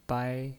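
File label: "bai", Mandarin Chinese, first tone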